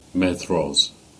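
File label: "Merrose" Cornish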